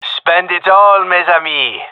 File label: request spend, gendarmerie male; vo